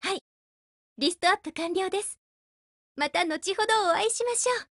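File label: OPERATION BEGIN Japanese, Voice; GF Alarm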